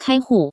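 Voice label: "kaihu", Taiwan Mandarin; bank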